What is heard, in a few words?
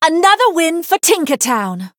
Female Human Emote Knockout